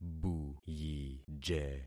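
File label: "buyije", concatenated syllables; Affixing experiment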